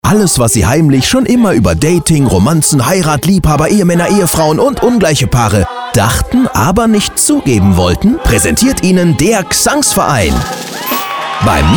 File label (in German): Werbespot Fantasy Musical; Abraxas 2016